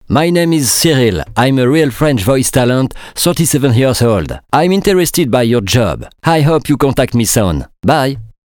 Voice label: french accent; foreign languages